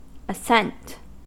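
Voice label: "ascent" English, US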